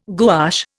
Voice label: "gouache", Indian